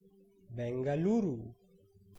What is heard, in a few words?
Bengaluru pronunciation